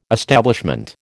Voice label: "establishment", American

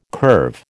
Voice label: "curve", American

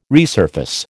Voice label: "resurface", American

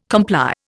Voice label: "comply", Indian

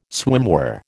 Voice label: "swimwear", American

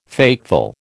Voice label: "fateful", American

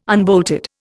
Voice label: "unbolted", Indian

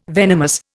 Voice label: "venomous", Indian

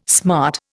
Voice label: "smart", Indian

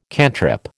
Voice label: "cantrip", American

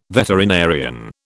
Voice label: "veterinarian", British

